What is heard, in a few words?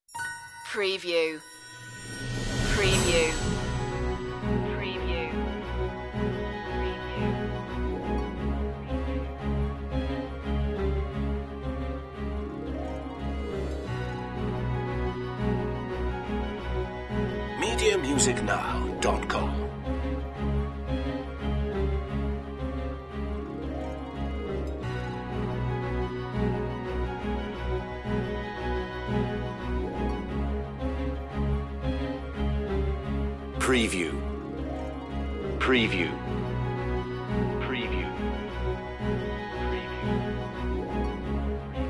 news voiceover loop